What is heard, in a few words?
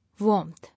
"Warmth", English